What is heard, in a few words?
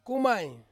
"kumai", Ansus; child